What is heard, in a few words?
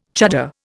"chuddar", Indian